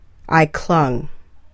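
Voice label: "I clung", English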